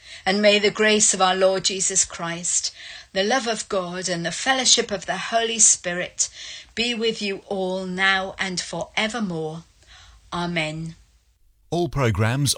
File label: announcement; BBC; BBC longwave update.